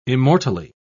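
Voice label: "immortally", British English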